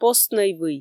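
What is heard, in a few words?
"постнӧй вый", Komi, female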